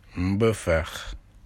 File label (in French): Wolof Ours